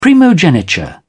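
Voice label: "primogeniture", British English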